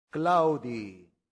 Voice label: "claudii", Latin; santillana